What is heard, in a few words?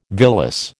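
"villus", American English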